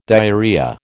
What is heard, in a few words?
"diarrhoea", American